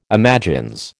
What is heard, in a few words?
"imagines", American